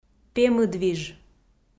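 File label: "пемыдвиж", Komi, female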